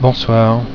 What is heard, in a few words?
"bonsoir", french